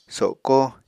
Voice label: "soko", Apatani